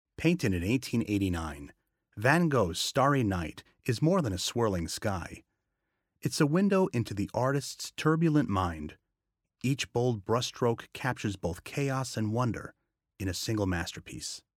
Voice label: Video Narration Adult EDU; Van Gogh